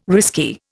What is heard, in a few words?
"risky", Indian